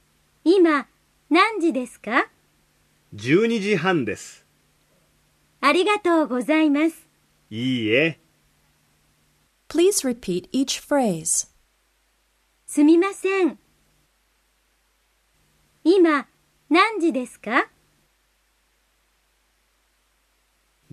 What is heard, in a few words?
dialogue, Japanese; Genki I+II - Integrated Elementary Japanese Course